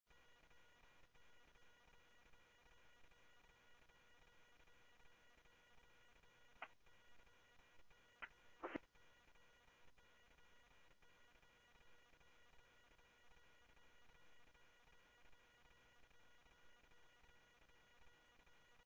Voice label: Mesa Simulcast, MESA PD, scanner audio